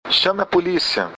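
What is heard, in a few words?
police, Brazilian Portuguese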